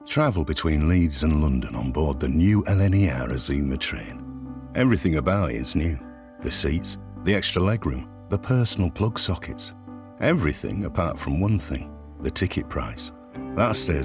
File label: male english yorkshire accent tv commercial; english northern accents; jamaican; lner azuma